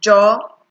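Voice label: "jor", Nigerian Pidgin, female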